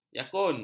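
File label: "jakun", Malay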